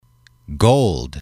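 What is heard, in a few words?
"gold", English, male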